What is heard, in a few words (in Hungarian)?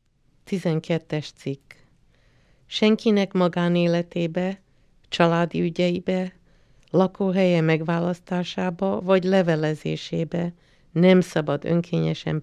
Magyar